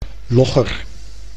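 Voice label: "logger", Dutch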